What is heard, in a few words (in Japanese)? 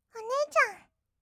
"お姉ちゃん", kawaii voice; ASMR; 二人称; 1200 kawaii voice!!